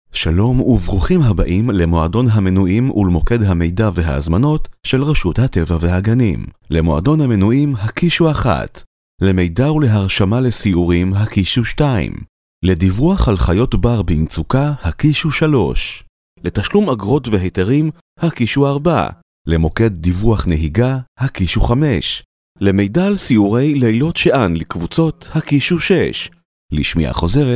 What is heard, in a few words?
Hebrew ivr